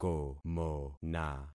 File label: "komona", concat syllables; Affixing experiment